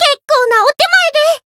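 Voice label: healed, Japanese; myungwha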